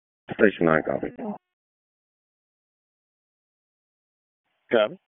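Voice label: HQ Dispatch, p25; RFD; wake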